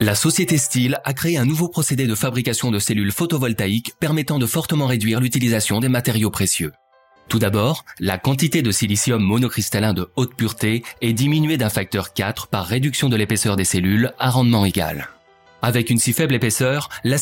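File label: French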